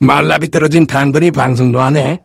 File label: negative vocalization